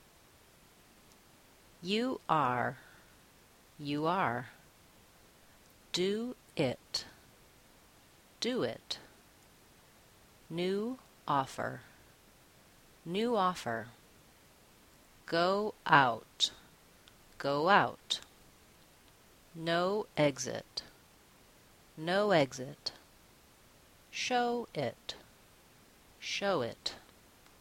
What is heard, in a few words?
vowel to vowel linking, w